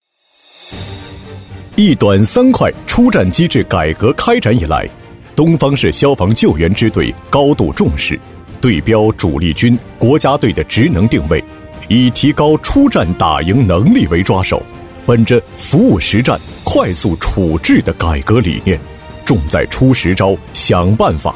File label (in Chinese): 男 消防专题 硬朗大气; 大气主流; 大气浑厚